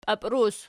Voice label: "papruz", Archi